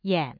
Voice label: "yan3", Mandarin tone

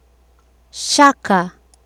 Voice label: "shaka", Swahili

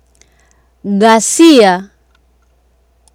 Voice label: "ghasia", Swahili

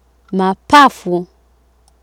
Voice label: "mapafu", Swahili

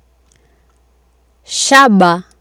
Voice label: "shaba", Swahili

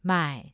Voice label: "mai3", Mandarin tone

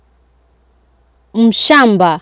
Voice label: "mshamba", Swahili

